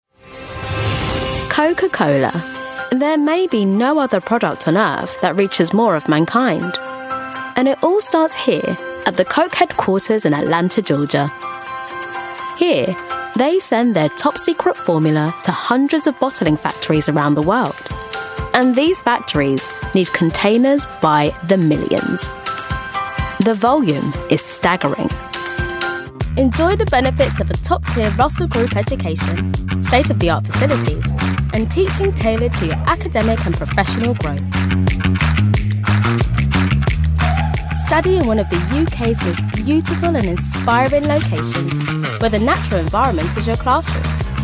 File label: london female voice corporate narration